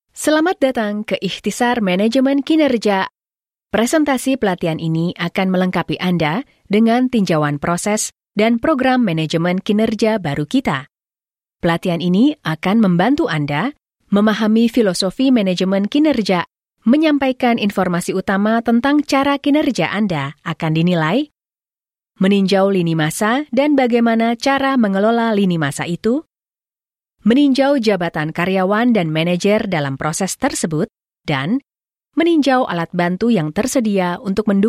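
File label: Indonesian female e-learning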